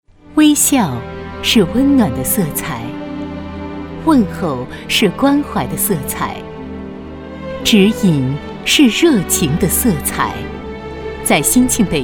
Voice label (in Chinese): 女, 专题; 国税局